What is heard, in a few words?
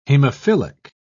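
"hemophilic", British English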